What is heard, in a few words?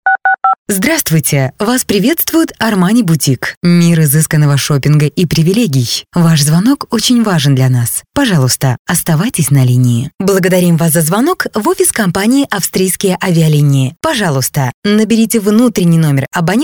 woman